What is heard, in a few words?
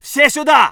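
voice soviet infantry; Red Orchestra 41-45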